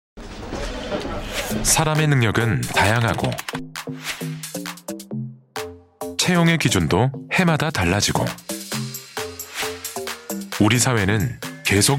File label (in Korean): 캠페인광고 소프트한 톤 성우